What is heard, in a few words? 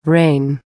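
"rain", English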